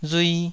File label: "rui2", taiwanese